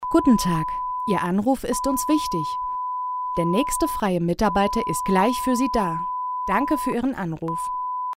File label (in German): Sprecherin piep Ansage